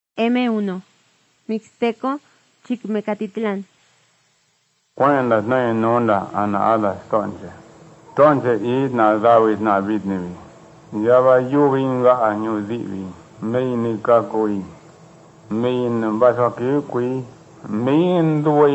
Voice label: mixtec diagnostic spanish mexico